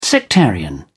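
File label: "sectarian", British English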